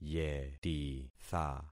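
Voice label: "yetitha", concatenated syllables; Affixing experiment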